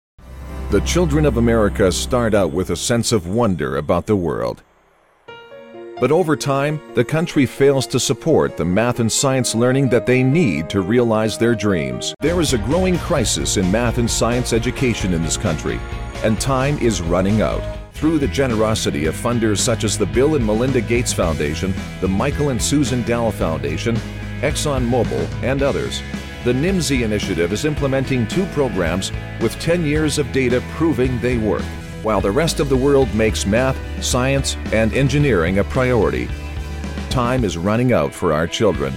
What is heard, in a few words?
english american announcements; Deep and authoritative with elegant clarity and warmth; canadian english voice actors